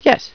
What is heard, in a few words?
"yes", scientist